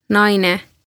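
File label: "Naine" Estonian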